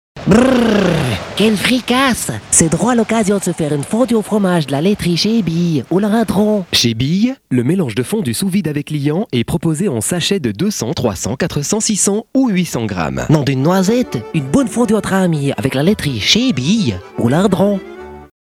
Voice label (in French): pub voix off